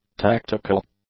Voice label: "tactical", English; adjectives